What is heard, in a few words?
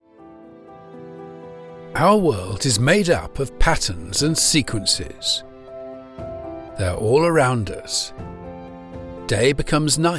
Documentary; The History of Mathematics